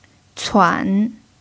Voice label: "cuan3", chinese pinyin

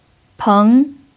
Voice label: "peng2", chinese pinyin

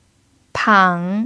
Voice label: "pang3", chinese pinyin

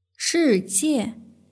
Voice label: "shijie", Chinese; hsk4

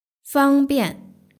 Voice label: "fangbian", Chinese; hsk3

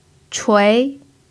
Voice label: "chui2", chinese pinyin

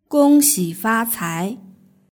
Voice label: "gongxifacai", Chinese; nygreeting

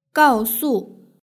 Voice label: "gaosu", Chinese

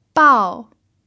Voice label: "bao4", pinyin

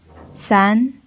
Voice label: "san2", chinese pinyin

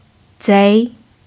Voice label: "zei2", pinyin

